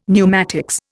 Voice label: "pneumatics", Indian; Dictionary